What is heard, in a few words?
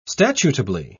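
"statutably", British English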